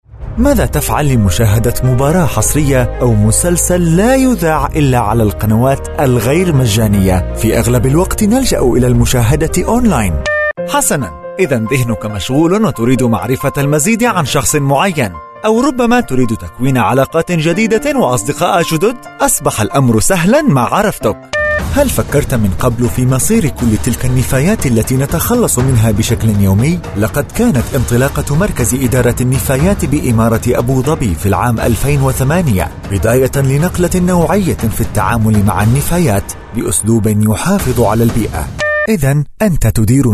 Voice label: arabic voice over male commercial

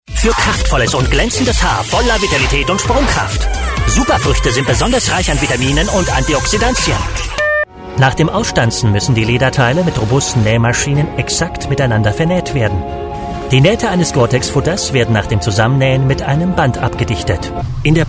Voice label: german voice over male commercial

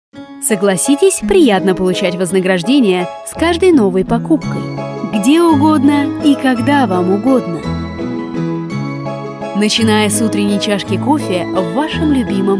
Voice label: russian voice over female commercial

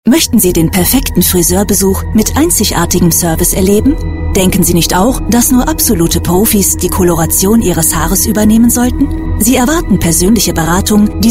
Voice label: germany voice over female commercial

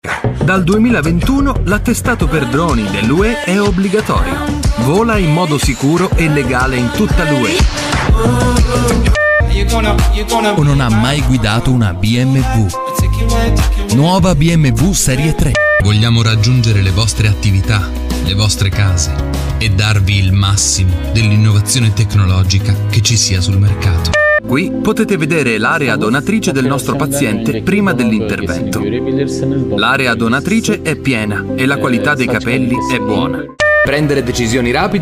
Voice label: italian voice over male commercial

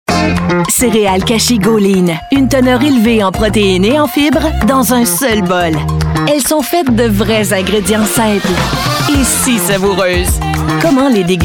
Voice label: french voice over female commercial

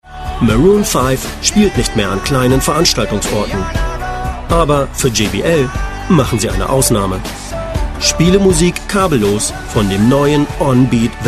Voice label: german voice over male commercial

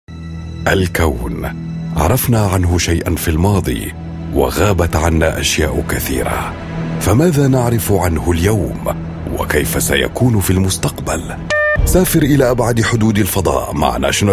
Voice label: arabic voice over male commercial

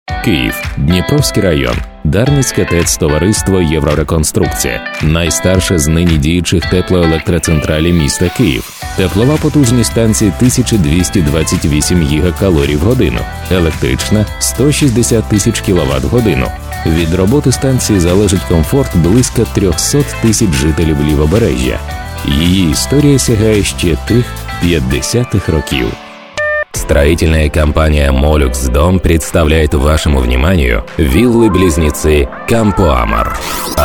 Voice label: russian voice over male commercial

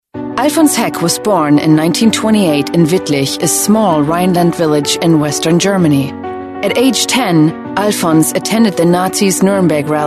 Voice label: english british voice over female narrator

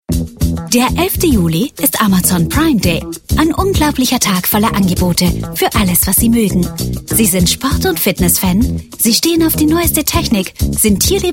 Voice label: germany voice over female commercial

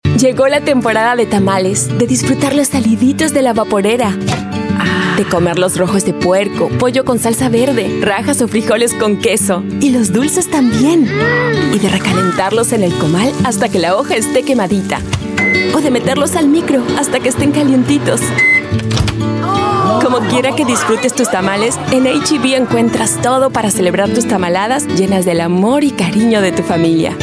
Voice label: spanish voice over female commercial

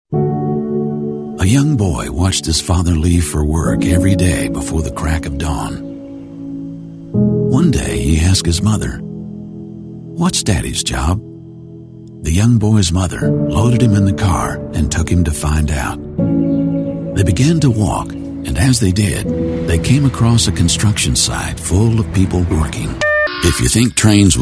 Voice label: english american voice over male

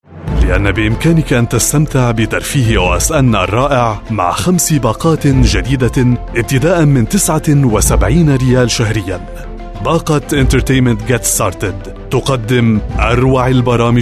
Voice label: arabic voice over male narrator

